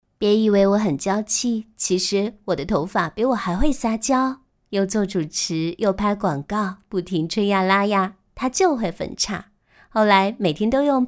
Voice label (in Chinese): 女-角色-模仿; 模仿林志玲